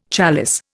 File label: "chalice", Indian